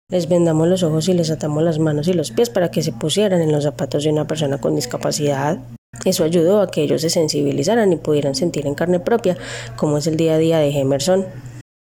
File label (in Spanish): Mujer Adulta; casting trineo tv